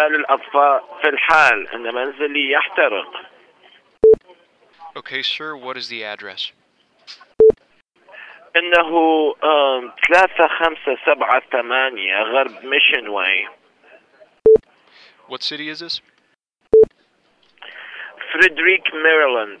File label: 911 House Fire Arabic without interpretation